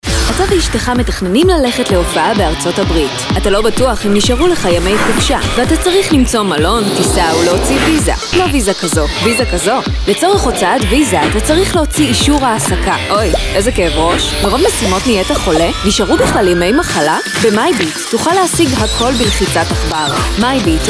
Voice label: Hebrew